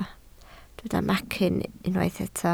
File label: "macyn", Welsh